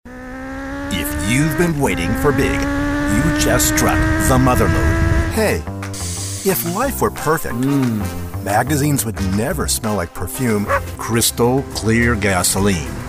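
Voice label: commercial sizzle, Voice Over; Voice Over artist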